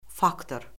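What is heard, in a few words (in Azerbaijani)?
"faktor", Azerbaijani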